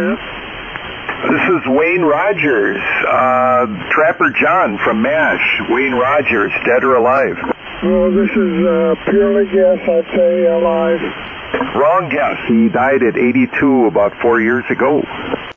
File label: Kenwood Twins & Collins 30S-1 Amp; Merrillville, IN.